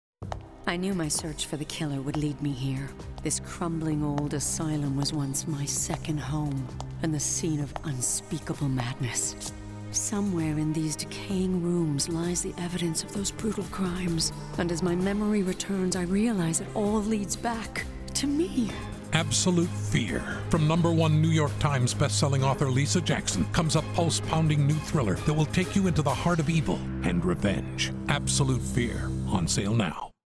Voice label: radio spot; Absolute Fear